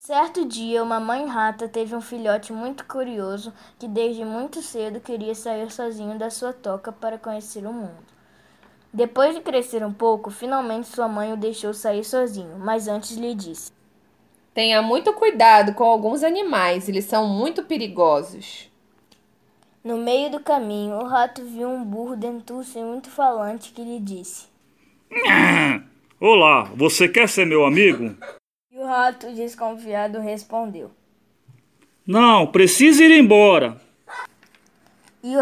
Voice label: AUDIOBOOK